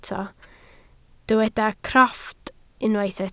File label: "crofft", Welsh